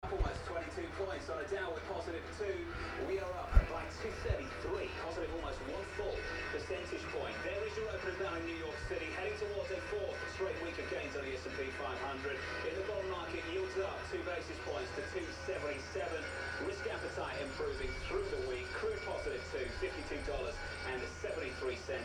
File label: tv voice english stocks quiet; 2019; Cambodia phnom penh